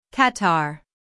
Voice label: "qatar", US; IPA: /ˈkɑː.tɑːr

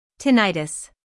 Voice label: "tinnitus", US; IPA: /ˈtɪn.ɪ.təs

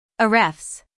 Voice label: "ahrefs", US; IPA: /ˈeɪ.rɛfs

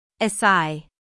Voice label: "acai", US; IPA: /ˌɑː.sɑːˈiː

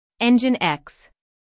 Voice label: "nginx", US; IPA: /ˌɛn.dʒɪn.ˈɛks

